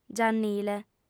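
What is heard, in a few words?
"giannile", Sardinian, female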